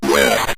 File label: "west", Synth Voice; Kenney's Sound Pack